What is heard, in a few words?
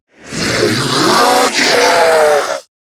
Player Spotted, en; Zariman Wraith